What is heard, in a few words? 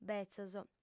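"betzos", female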